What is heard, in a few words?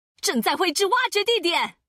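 Traditional Chinese; 探奇（守望先锋2）/终极技能; 正在繪製挖掘地點！; Plotting out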